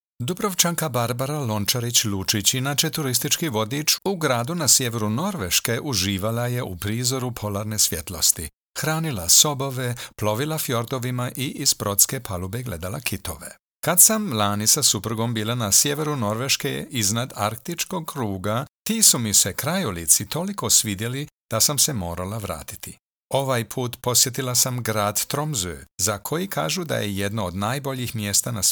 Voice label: Croatian Narrator VO

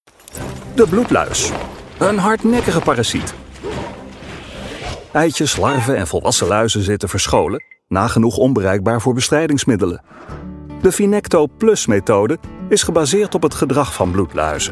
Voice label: vo explanimation casual

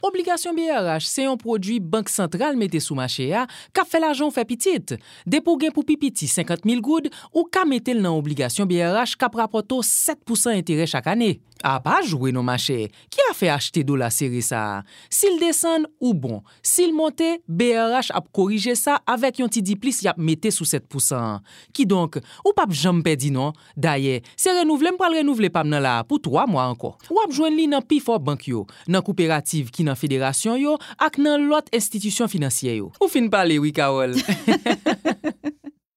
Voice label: Conversational Haitian Creole

